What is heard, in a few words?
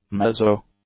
"mezzo", english; adjectives